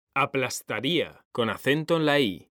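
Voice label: "aplastaría", Spanish, male; mekanta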